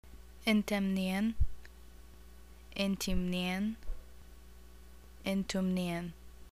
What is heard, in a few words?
where r u from, arabic, iq